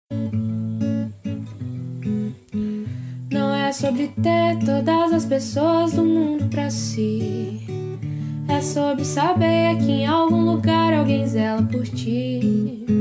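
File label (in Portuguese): ANIV CUNHADA male, telemensagem; cunhada cunhado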